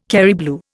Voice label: "Kerry blue", Indian